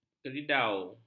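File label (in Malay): "keridau", Malay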